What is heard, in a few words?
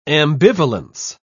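"ambivalence", English, British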